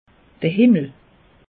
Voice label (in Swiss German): "himmel", alsace; meteo